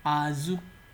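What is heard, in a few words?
"azu", Nigerian Pidgin, male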